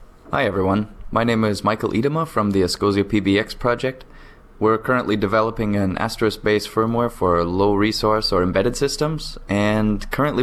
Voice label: greeting in G.722.1c; ENG